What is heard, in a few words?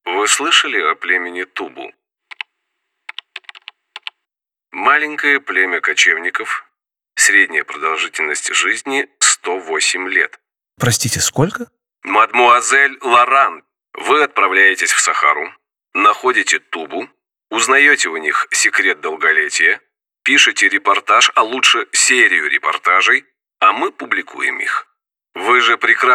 Audio Book Trailer; Mama Africa